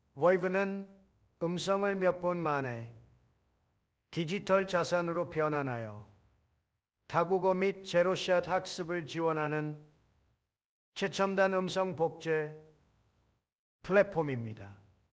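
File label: voice Korean; 懂王韩语; Voicv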